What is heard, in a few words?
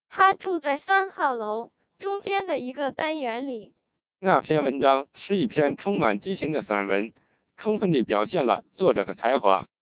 Chinese, CODEC2 1200 bps